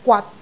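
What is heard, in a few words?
"gwat6", Cantonese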